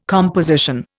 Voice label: "composition", Indian

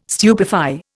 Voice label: "stupefy", Indian

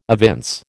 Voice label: "evince", American

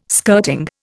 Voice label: "skirting", Indian

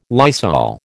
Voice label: "Lysol", American

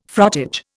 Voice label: "frottage", Indian

